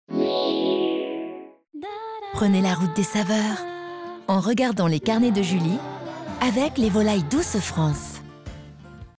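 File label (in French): promo sweet, voix off; Poulet Douce France; Complice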